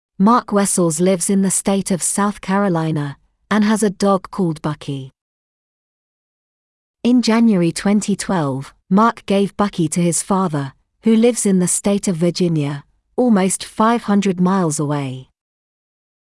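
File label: British; bucky walked home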